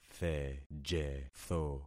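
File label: "thejetho", concatenated syllables; Affixing experiment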